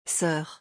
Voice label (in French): "sœur", French